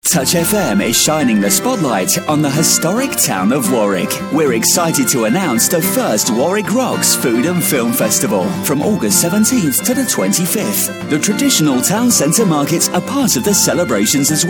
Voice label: radio advert; warwick rocks food and film festival markets